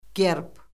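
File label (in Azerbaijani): "gerb", Azerbaijani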